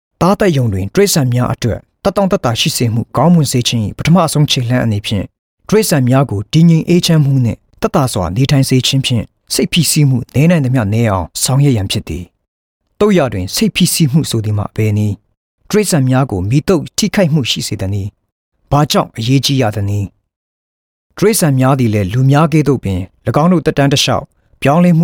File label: Burmese Male